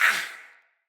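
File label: Pain Corrupted Sprouts, en; Dialog; Battle Barks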